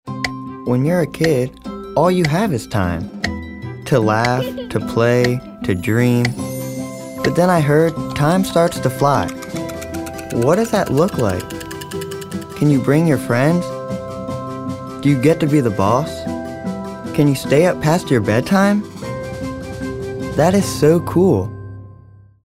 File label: Young